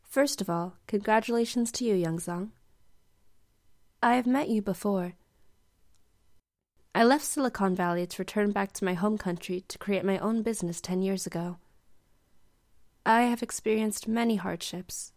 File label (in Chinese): 外籍女 角色记者模仿